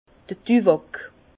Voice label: "duwak", Bas Rhin; vin; tabac

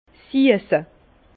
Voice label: "siesse", Bas Rhin; le sucré; vin

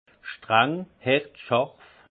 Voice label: "strang", alsace; vin; austère

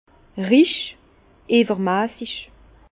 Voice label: "rich", Bas Rhin; vin; opulent

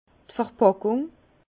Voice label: "verpackung", Bas Rhin; vin; emballage

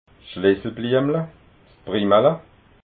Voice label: "primala", alsace

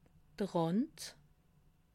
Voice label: "rand", alsace

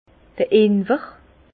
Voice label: "inwer", Bas Rhin; vin; gingembre

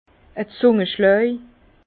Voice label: "zungaschlaj", Bas Rhin; vin

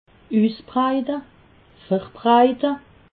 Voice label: "usbreite", alsace

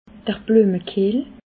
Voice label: "bluemekehl", Alsace

